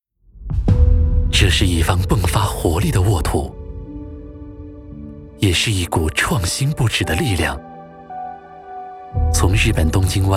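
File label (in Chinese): 广告科技感; 湾区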